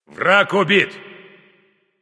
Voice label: slain enemy, russian; caster